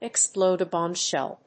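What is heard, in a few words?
"explode a bombshell", English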